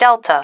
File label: "delta", female